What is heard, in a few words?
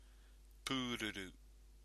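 "pururu", Māori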